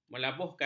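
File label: "melabuhkan", Malay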